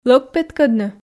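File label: "лӧг петкӧдны", Komi, female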